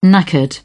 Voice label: "knackered", British; English